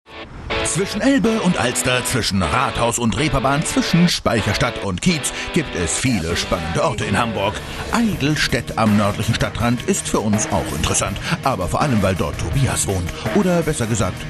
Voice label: Kommentar Witzig

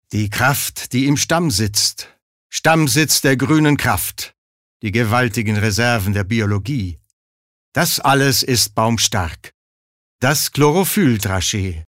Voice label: Werbung Kraftvoll

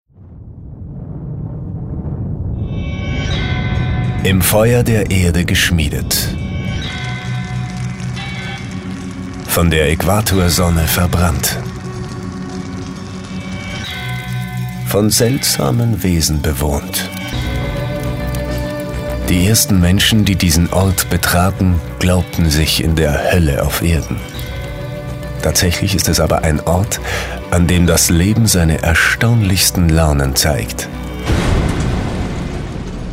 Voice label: Kommentar dynamisch, voices

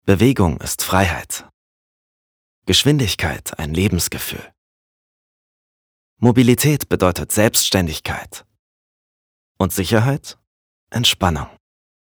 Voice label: Sanft; Xios

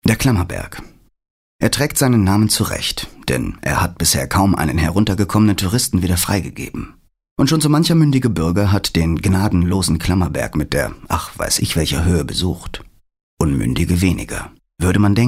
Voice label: Kommentar Sanft

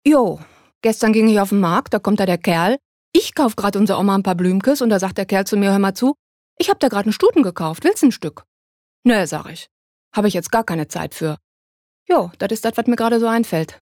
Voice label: Dialekt Westphaelisch

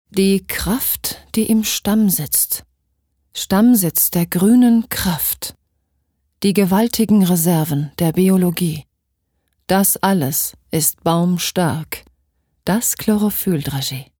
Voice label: Werbung, Sanft

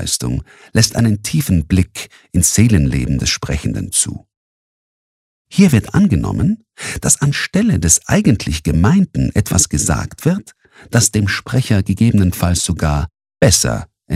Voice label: Versprecher Neutral

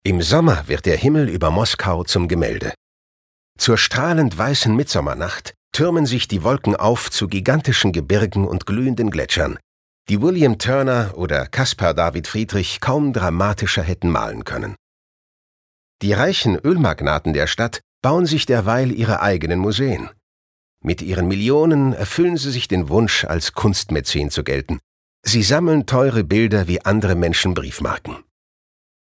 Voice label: Kommentar, Neutral

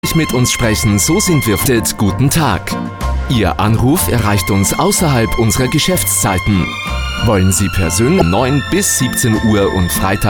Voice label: AT Werbung Freundlich

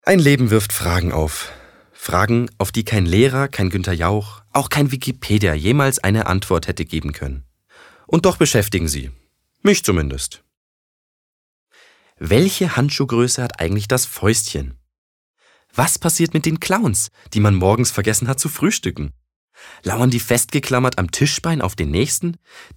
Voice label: Schauspiel Witzig

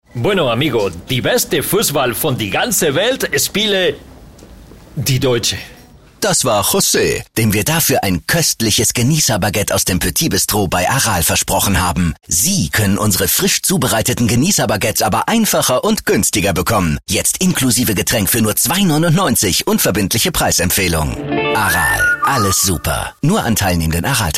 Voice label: Akzent Spanisch, voices

